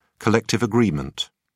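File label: "collective agreement", British English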